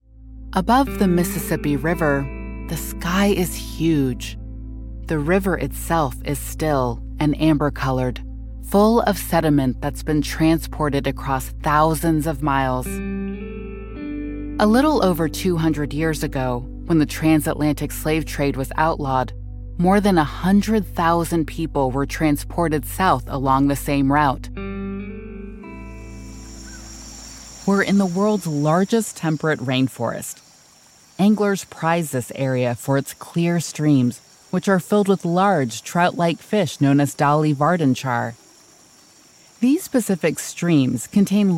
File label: Documentary